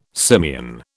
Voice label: "simian", British